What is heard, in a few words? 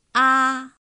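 "ā", Chinese; pinyin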